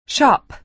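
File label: "shop", American English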